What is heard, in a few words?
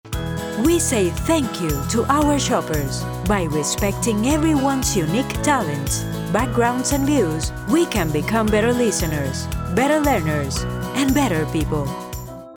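Spanish, female, English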